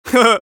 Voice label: scout taunt exert; vo; taunts; tf2

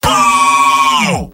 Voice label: scout jeers; mvm

